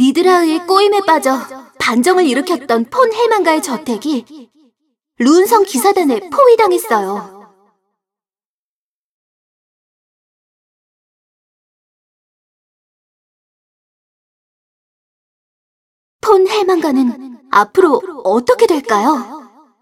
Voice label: cinematic voice; lineage2

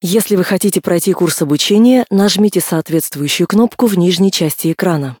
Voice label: tutorial voice, ru; lineage2

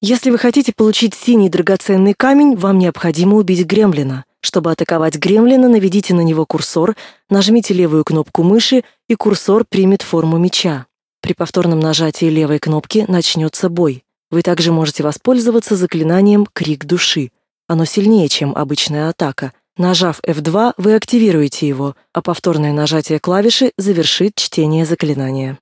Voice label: tutorial voice ru; lineage2

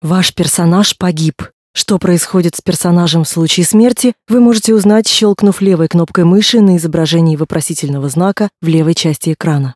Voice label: tutorial voice ru; lineage2

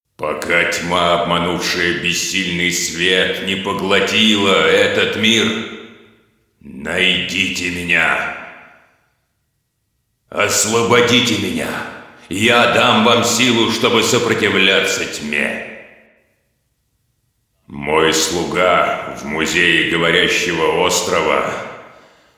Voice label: cinematic voice, ru; lineage2

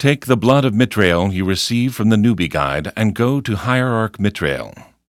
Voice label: tutorial voice; lineage2

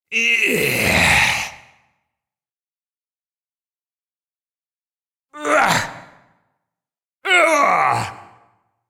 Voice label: cinematic voice, ru; lineage2

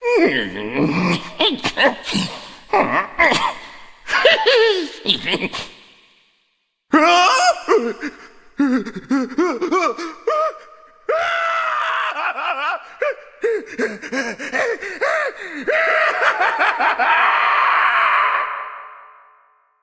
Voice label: cinematic voice; lineage2

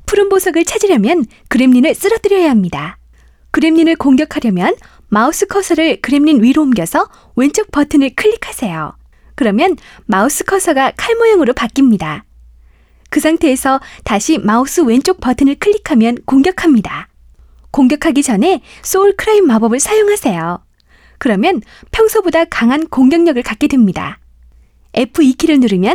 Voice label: tutorial voice; lineage2

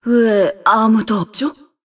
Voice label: cinematic voice; lineage2

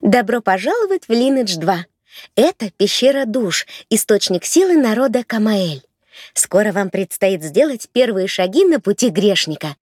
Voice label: tutorial voice, ru; lineage2

